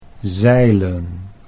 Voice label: "zeilen", Dutch